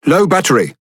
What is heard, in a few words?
Speech Alarms Sub Battery Low, EN GB; World Of Warships